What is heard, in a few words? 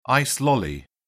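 "ice lolly", British English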